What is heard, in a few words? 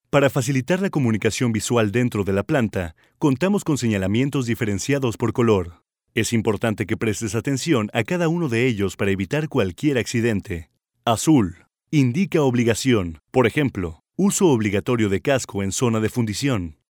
locutor mexicano mexican voiceover